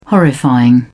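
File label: "horrifying", British English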